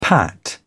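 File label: "pat", British English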